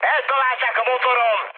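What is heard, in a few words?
Hungarian damaged engine mood high, speech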